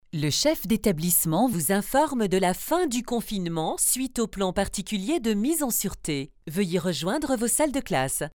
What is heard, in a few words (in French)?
Voix annonce de fin de PPMS